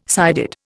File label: "sided", Indian